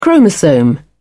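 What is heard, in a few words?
"chromosome", British English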